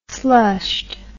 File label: "slushed", english